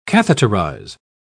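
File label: "catheterise", British English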